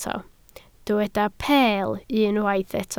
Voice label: "peel", Welsh